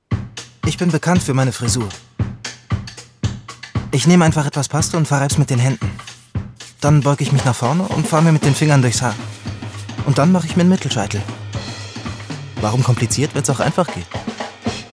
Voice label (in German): Freundlich